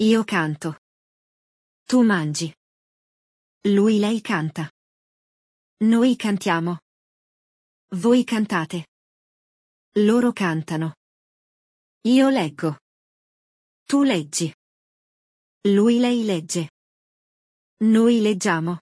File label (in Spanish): GRAMATICA CLASE ITALIANO; Conversación:Mario: Ciao, Maria! Come stai oggi?